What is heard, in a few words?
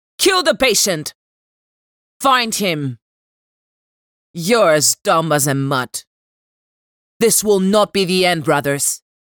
greek video games